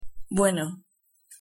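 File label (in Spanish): "bueno", Spanish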